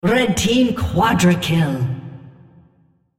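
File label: On Champion Quadra Kill red female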